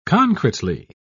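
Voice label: "concretely", British English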